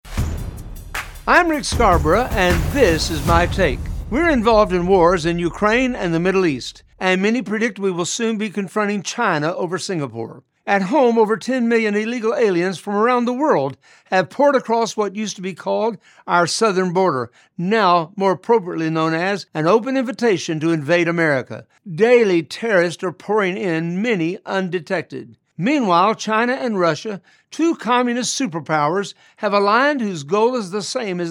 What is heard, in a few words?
Radio Spot